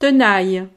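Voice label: "tenaille", French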